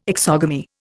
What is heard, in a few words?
"exogamy", Indian